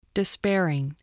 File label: "despairing", English